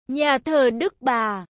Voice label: Notre Dame Cathedral in vietnamese audio; saigontraveling